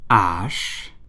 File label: French alphabet h